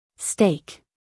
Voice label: "steak", US English, female; everyone-can-use-english